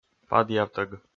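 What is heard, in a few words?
"падъявтӧг", Komi, male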